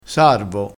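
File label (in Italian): "sarvo", dialetto crespinese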